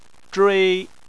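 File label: "zhui" Chinese, first tone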